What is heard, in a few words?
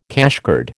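"cashcard", American English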